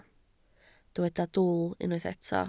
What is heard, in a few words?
"dwl", Welsh